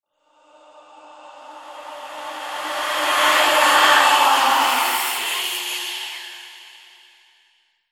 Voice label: Whispers Tense; horror and atmosphere fx; Silent Hill Downpour